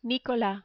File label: "Nicolas", French